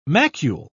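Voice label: "macule", British English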